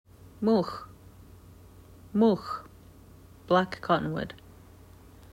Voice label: Mulx, Nsyilxen Plant Names; Black cottonwood